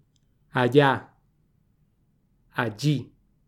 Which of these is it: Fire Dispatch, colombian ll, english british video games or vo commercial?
colombian ll